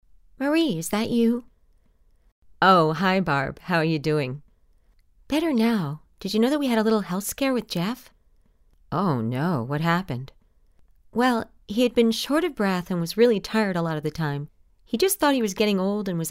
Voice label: Heart Valve PSA